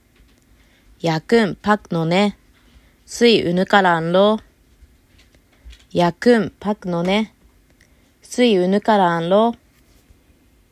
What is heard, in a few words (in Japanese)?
アイヌ語音声; hokkaido